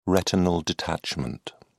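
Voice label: "retinal detachment", English, British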